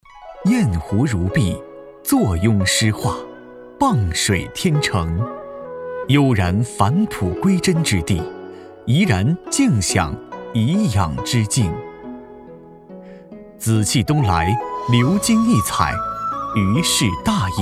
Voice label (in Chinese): 地产宣传; 燕湖如碧